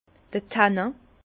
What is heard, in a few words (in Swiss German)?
"tannin", Bas Rhin